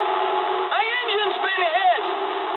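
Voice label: damaged engine mood low, speech English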